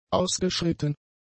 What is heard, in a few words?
"ausgeschritten", German; adjectives